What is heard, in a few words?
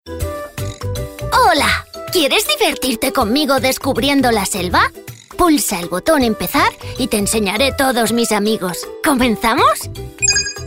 female spanish kid app comercial; ideasonora